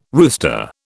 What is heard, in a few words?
"rooster", British